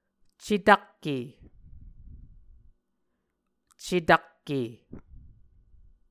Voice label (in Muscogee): "cetakke", Muscogee; Mvskoke Words with Repeated Consonants; A Dictionary of Creek/Muskogee; SIL International